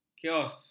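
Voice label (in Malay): "kios", Malay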